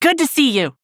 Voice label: Nonbinary Night Elf Greetings

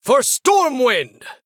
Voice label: Male Human Attack; HERO

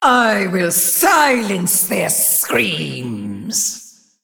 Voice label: Female Giant Snake

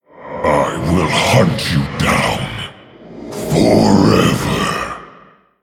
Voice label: Male Orc Threaten

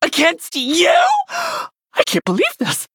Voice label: Warrior Nonbinary Night Elf

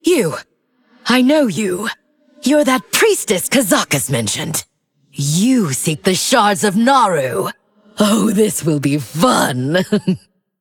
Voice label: Female Undead

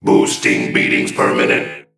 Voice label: Male Mech Play

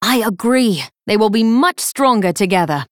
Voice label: Female Night Elf After Triple

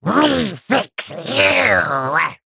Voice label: Male Kobold Attack

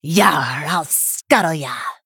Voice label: Female High Elf PIRATE DAY; Alleria Windrunner

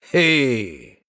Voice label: Male Human Emote MIRROR GREETINGS

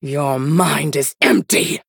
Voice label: Female Human Attack

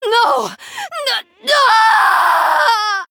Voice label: Female Draenei

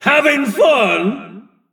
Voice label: Male Dwarf Attack

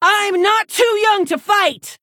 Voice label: Female Orc Play

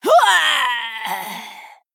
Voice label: Female Troll Death

